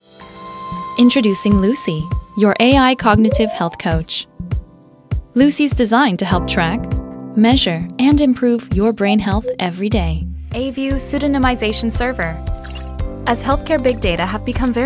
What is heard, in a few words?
Animated Voice